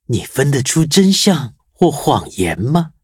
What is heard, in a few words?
touch, zh hant